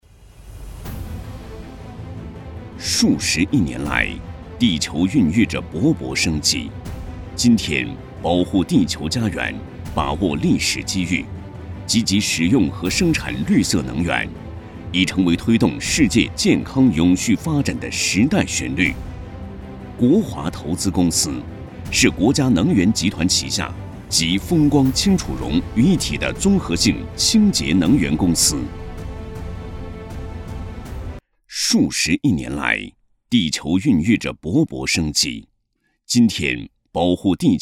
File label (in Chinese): 男 大气专题; 国华投资